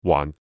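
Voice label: "waan4", Cantonese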